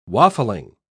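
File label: "waffling", British English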